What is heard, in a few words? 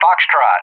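"Foxtrot", English; JTAC; Alphabet; DCS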